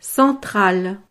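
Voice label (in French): "centrale", French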